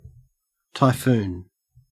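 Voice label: "typhoon", Australian English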